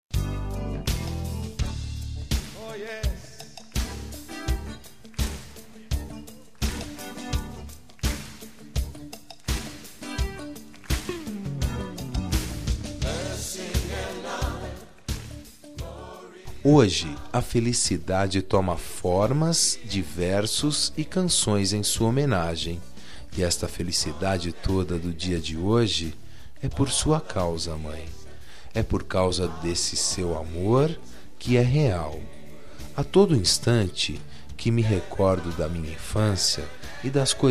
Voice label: Dia das Maes Religiosa, M

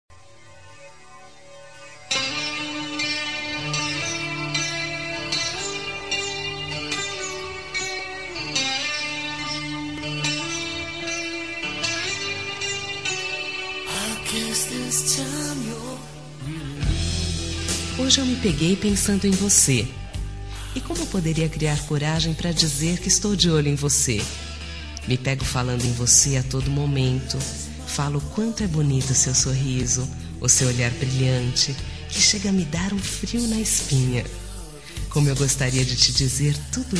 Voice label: Romântica Com Paquera, F

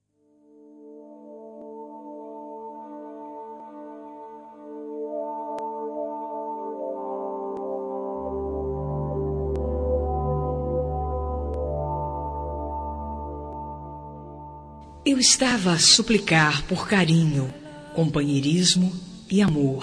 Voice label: Romântica, F; Ínicio de Namoro

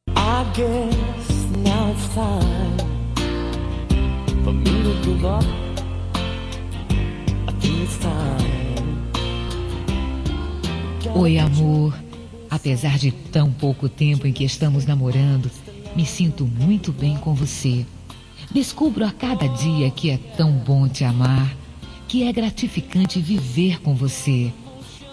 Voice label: Romântica, F; Eu Adoro Te Namorar

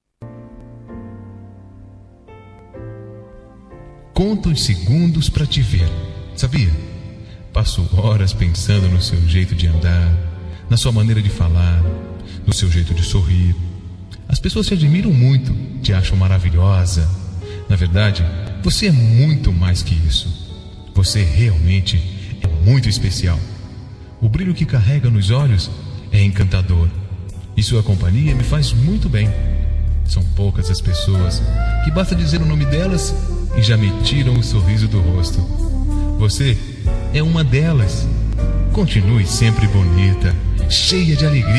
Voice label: Romântica Com Paquera

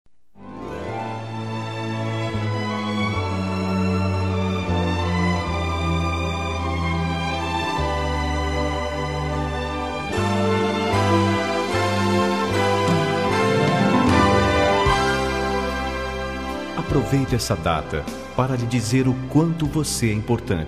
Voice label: Feliz Natal e Ano Novo Romantico, M

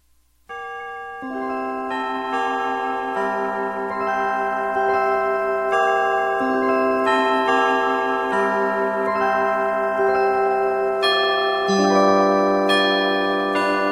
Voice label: Feliz Natal, F Infantil

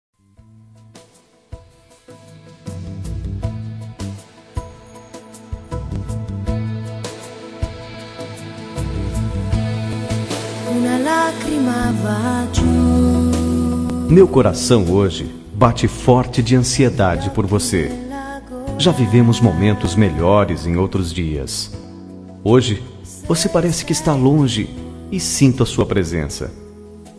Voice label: Romântica Com Saudades

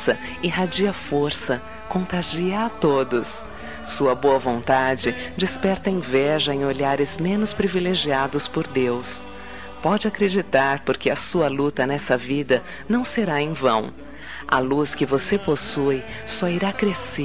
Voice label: Amizade Mensagem pra Amizade F

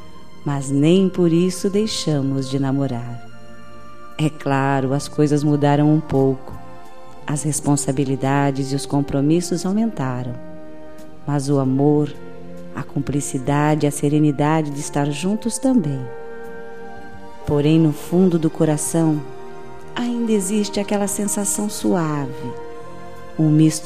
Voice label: Namorados pra Esposo F

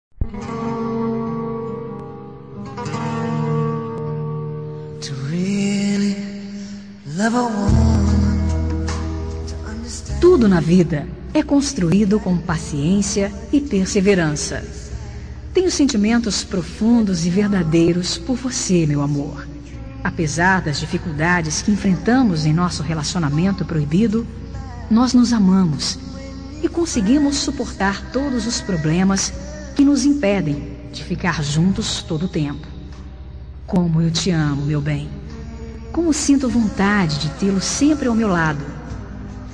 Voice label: Romântica pra Amante

